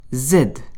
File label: French alphabet z